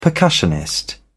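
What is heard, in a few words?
"percussionist", British English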